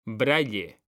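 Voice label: "BRAILLE", Spanish, male; mekanta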